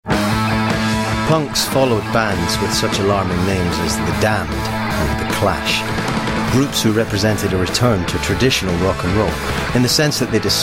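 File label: narration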